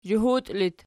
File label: "zhuhut", Archi